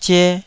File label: "zie2", Taiwanese